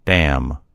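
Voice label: "dam", American English; gcompris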